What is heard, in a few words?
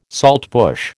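"saltbush", American English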